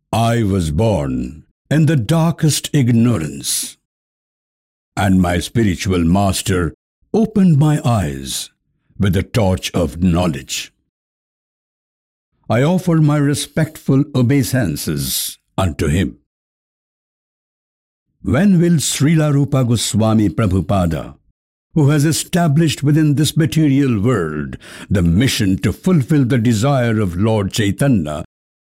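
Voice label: Bengali, male, English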